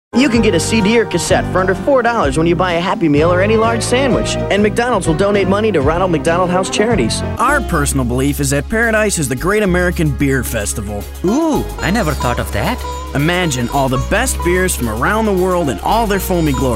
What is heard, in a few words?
Warm and Friendly